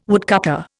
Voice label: "woodcutter", Indian